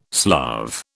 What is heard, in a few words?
"Slav", British